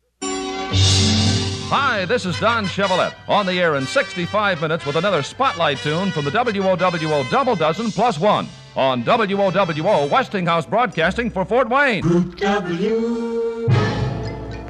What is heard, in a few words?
promo; WOWO; 1966